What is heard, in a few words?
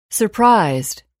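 "surprised", English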